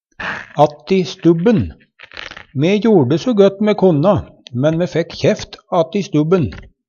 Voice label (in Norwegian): "atti stubben", Numedalsmål; Me jorde så gøtt me konna, men fekk kjeft atti stubben.